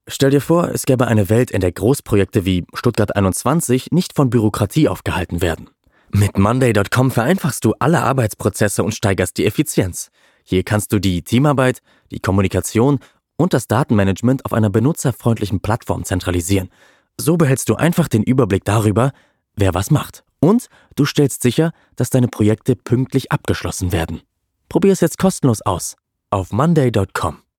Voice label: Podcast Ad, Commercial (Werbung), Narrative, German, Eastern European